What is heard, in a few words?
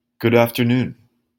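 pronunciation "good afternoon", English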